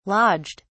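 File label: "lodged", British English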